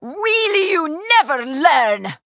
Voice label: Female Draenei Attack